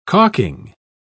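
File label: "calking", British English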